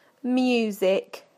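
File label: "music", British English; PictureDictionary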